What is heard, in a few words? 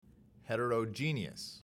"heterogeneous" pronounced